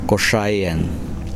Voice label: "kosraean", Kosraean

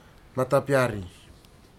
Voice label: rock clinger chiton, futuna aniwa

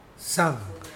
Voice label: "to fly", Mokilese

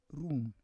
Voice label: "rum", Roon

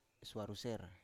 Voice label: "swaruser", Biak

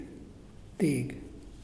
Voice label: Muyuw Ear; Lae Unitech

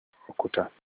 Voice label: "grinding stone", Olukumi

